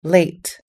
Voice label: "late", us english